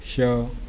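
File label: "Sha", consonants of the ĐevaNāgarī script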